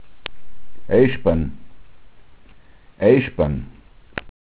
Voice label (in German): "aischban", dialekt